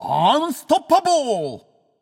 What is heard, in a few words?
alert notify kill streak, japanese; caster